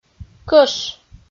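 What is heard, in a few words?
"кӧш", Komi, female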